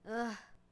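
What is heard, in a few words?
Settler Child Fort Vox; Civ4 warlords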